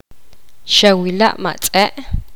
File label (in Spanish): poqomam viste el perro